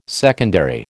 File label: "secondary", American